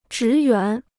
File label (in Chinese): "职员", Chinese